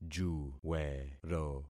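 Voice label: "juwero", concatenated syllables; Affixing experiment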